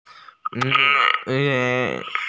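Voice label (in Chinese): "bi4 ye4" Chinese, female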